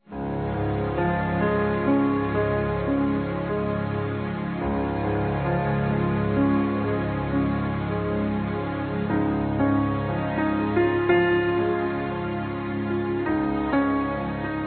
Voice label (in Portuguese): ANO NOVO P MÃE M; Telemensagens; Natal e Ano novo; masc